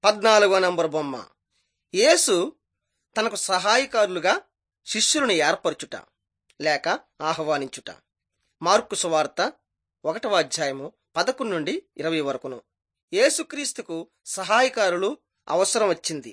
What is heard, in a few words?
telugu bangladesh; jesus teacher and healer